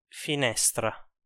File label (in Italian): "finestra", Italian